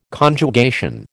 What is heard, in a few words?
"Conjugation", American